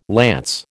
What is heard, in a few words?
"lance", American